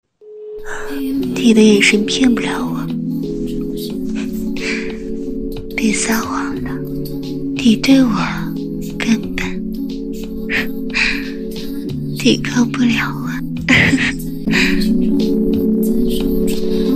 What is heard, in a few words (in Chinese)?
御姐